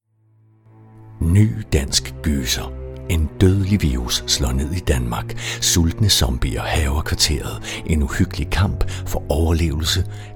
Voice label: Mix af genre suspence power tegnefilm reklame

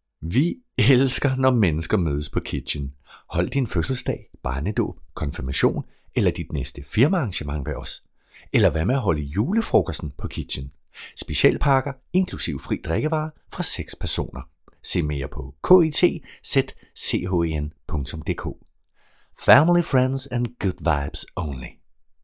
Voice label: Reklame Familiært venligt friskt

